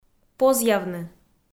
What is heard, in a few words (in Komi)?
"позъявны", Komi